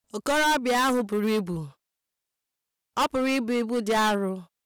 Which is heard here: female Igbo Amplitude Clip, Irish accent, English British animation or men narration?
female Igbo Amplitude Clip